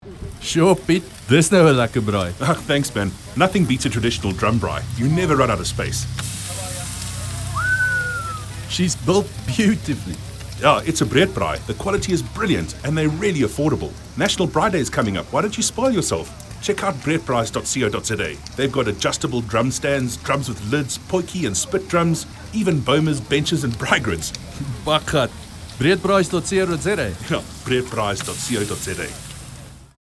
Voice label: Radio Ad; Breedt Braais